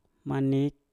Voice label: "manik", Mpur; minyak